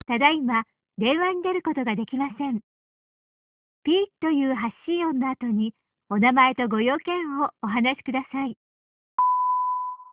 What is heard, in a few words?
Japanese Answer; LG L-01B; VoiceMemo